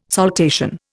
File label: "saltation", Indian